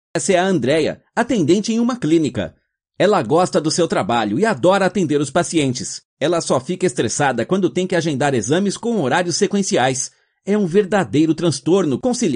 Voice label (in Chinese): 葡萄牙语男 外语 小语种